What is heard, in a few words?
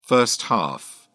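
"first half", British English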